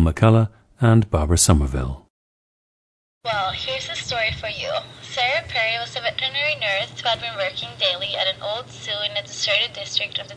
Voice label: norway, dialects